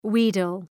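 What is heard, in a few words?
"wheedle", English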